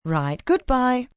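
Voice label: "Goodbye" British female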